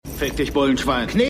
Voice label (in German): Spuckender Insasse; Con Air